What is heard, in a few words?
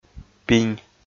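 "пинь", Komi, male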